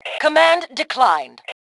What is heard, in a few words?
Command Declined, Female; T2 Female 02